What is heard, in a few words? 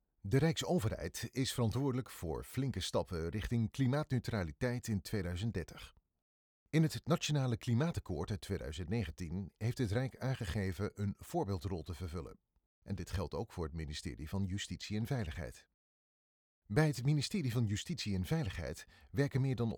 Voice over Dutch experienced stemgeluid ervaren Nederlands; Tobespoken; ministerie van Justitie; Duurzaamheid Rijk